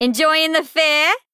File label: Female Goblin